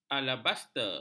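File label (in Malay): "alabaster", Malay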